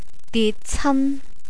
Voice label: "ditcan", Cantonese